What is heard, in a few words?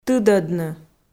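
"тыдӧдны", Komi, female; audio dict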